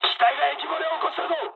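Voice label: damaged water mood high, speech japanese; War Thunder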